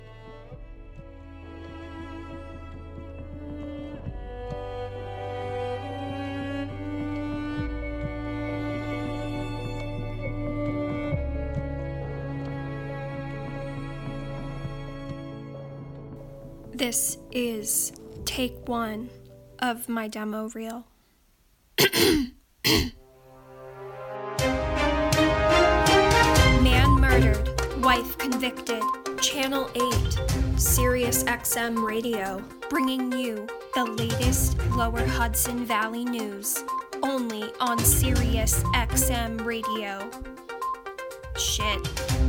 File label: voiceover; Quarantine Careers Voiceover Edition